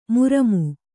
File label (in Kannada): "ಮರುಮೈ", Kannada